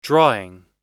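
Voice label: "drawing", American English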